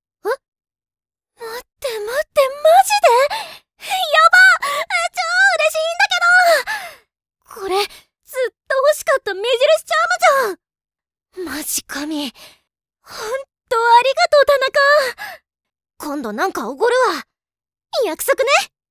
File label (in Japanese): ギャル 明るい 16歳; jtb-ent-voice